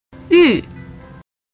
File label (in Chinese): "ü4", Chinese